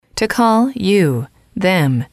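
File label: "to call you them", English, female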